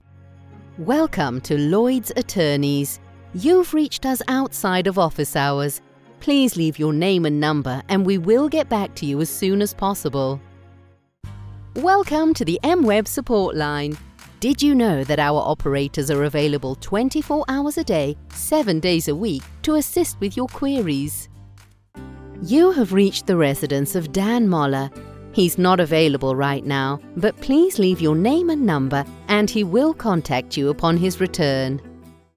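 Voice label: Telephone Message mix music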